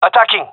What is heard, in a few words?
attacking, gendermerie male; vo